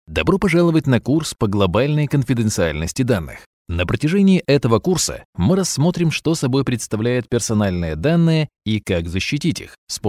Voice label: E-learning Russian

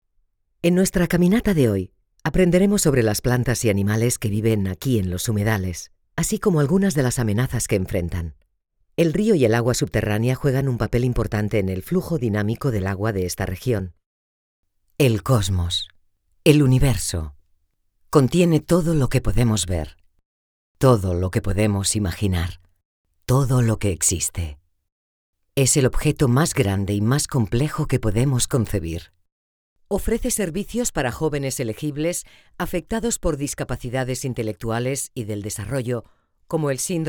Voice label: DOCU CAST; HomeStudio

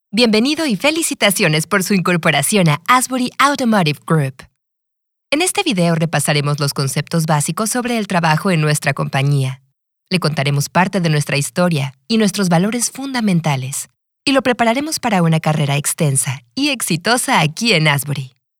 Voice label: E-LEARNING

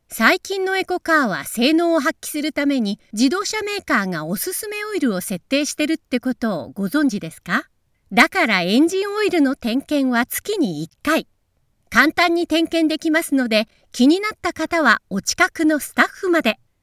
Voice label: 20 seconds Internet business CM

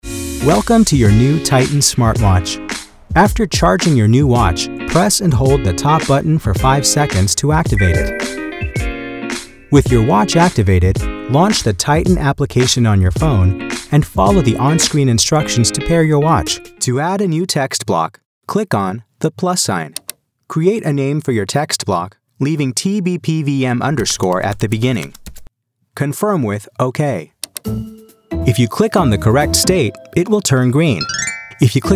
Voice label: eLearning

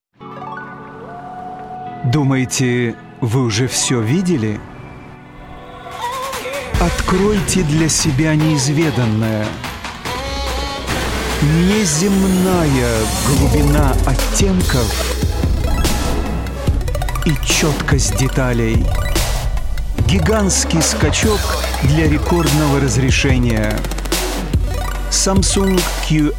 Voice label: Russian; Samsung Space

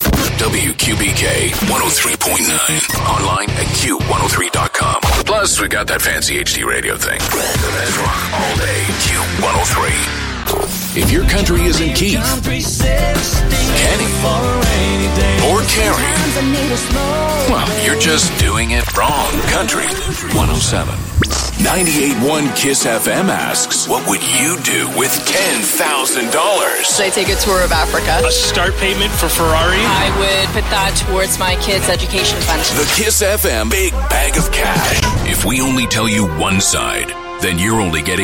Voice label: IMAGING

